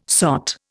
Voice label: "sot", Indian